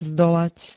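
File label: "zdolať", Slovak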